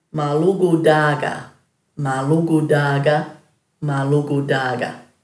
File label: "marlugudhaaga", Dhurga